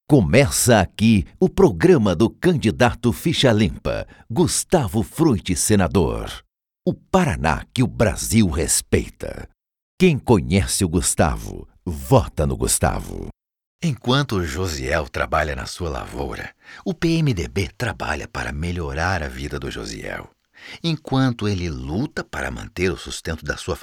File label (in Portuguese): locucao politica